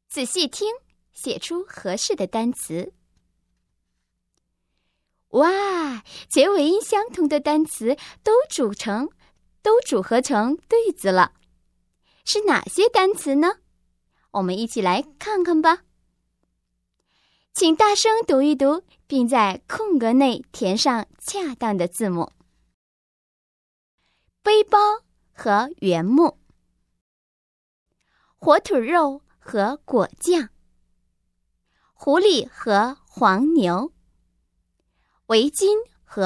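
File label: Chinese, female, e-learning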